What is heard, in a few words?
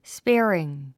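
"sparing", English word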